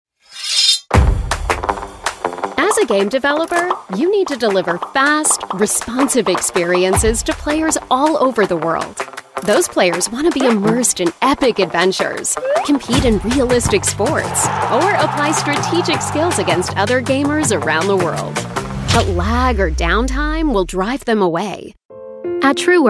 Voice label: american corporate, Friendly Millennial American

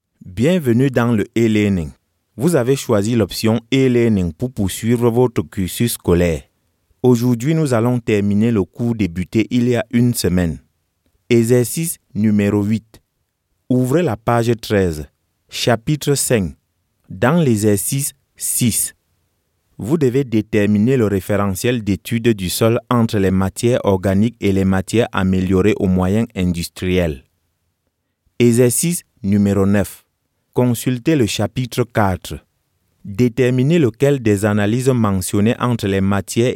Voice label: african french voice elearning

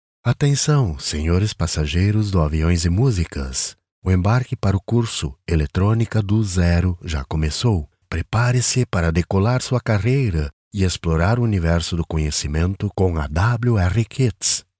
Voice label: brazilian podcast

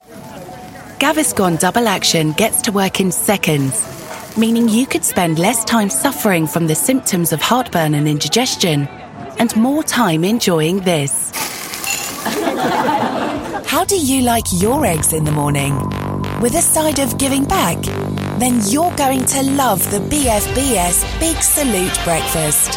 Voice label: commercial reel, Warm Clear Voice

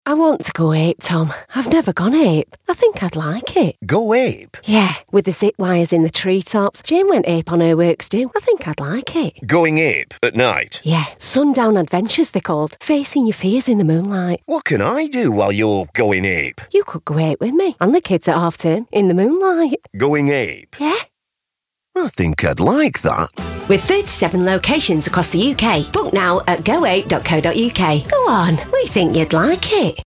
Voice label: character

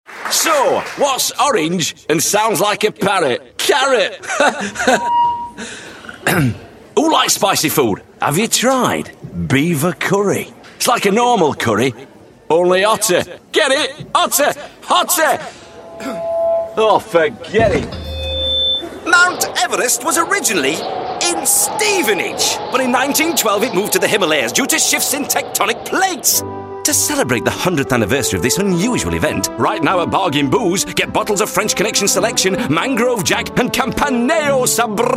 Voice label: comedy commercial

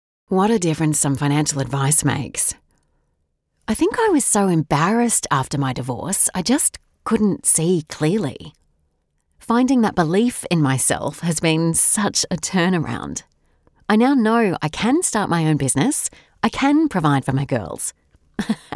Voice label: australian voice natural

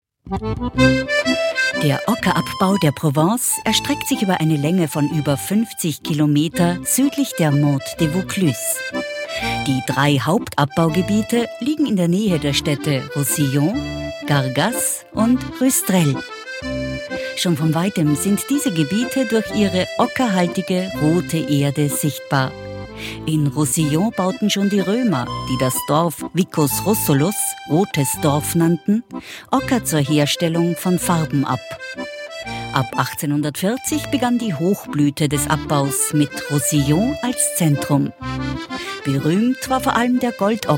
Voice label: austrian female documentary

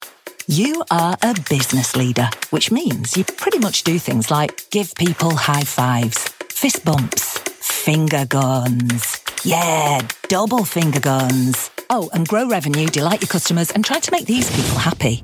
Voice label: corporate b2b reel

